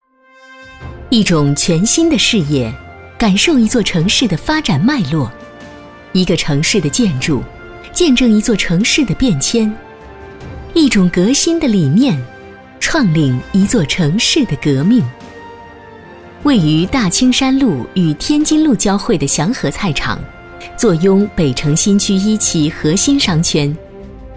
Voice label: 女 专题 希望感; 祥和菜场建设

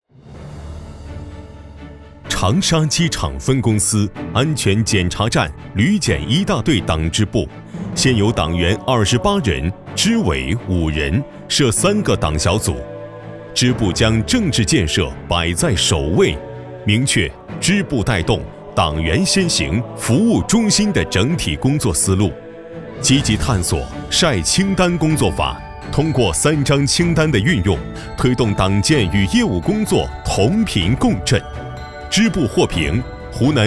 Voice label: 男 党建

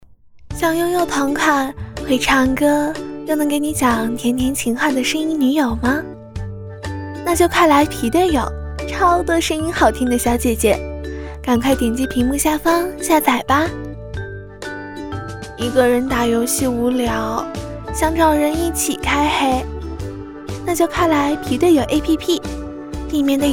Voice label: 女 广告 游戏陪玩

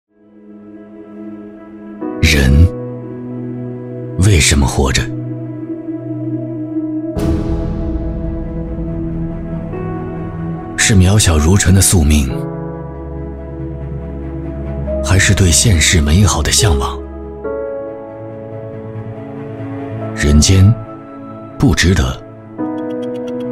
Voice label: 男 宣传 走心 大气 纪录片预告; 人为喜事而值得

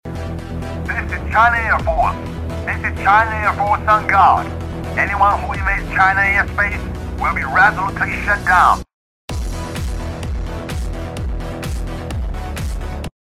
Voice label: 男, 英文电影感, 角色

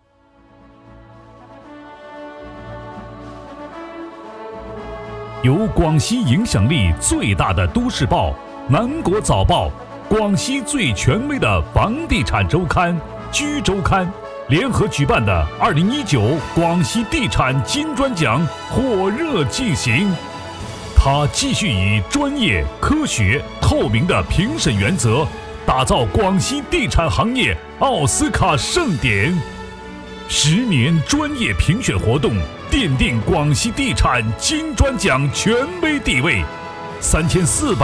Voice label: 男 颁奖; 广西地产金砖奖

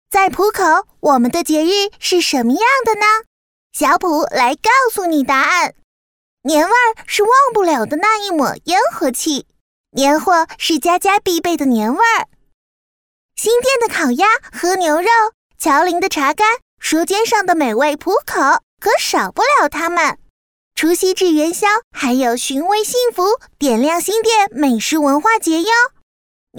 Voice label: 女童; 情系江北

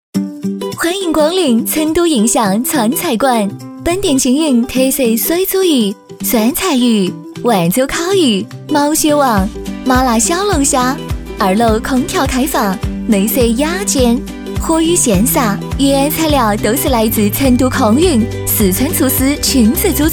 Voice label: 女 四川方言; 成都印象

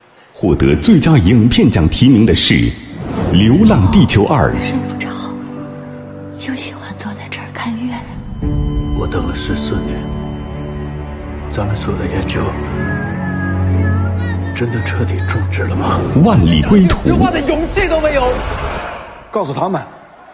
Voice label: 男 宣传片; 第十八届中国长春电影节

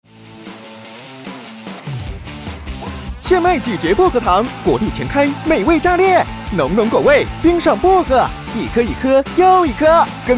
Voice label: 男 广告 年轻搞怪; 炫迈

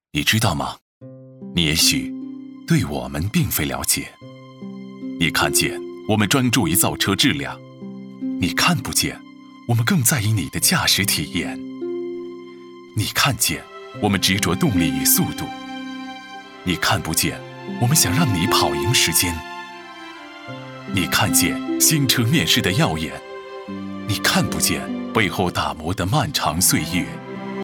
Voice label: 男 旁白 你知道嘛 汽车

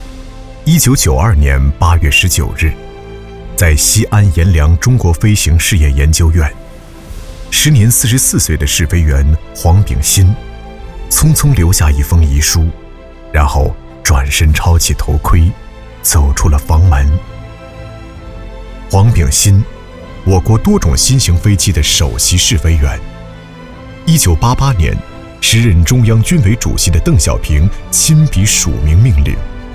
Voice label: 男 人物记录片; 以身许国

